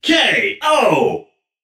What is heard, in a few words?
spry male narrator "ko"; soundscrate